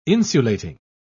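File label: "insulating", British English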